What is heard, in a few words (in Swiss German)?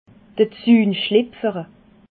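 "zuhnschlipferle", Alsatian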